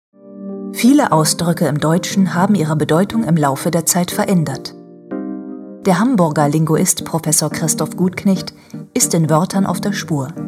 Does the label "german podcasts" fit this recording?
Yes